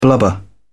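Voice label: "blubber", British English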